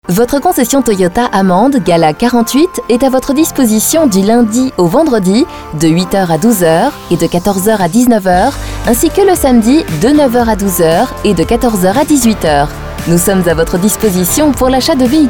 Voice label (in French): REPONDEUR; TOYOTA